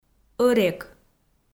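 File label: "ӧрек", Komi, female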